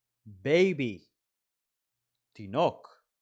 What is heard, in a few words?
"baby" – "תינוק"